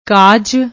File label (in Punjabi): "kaaj", Punjabi; ਕਾਜ